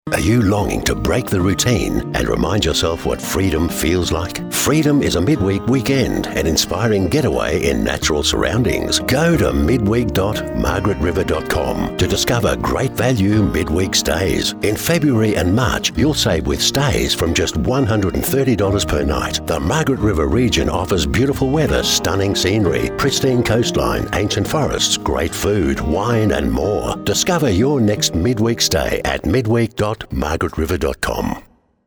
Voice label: Radio ad